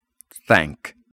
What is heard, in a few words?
"thank", English